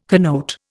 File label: "connote", Indian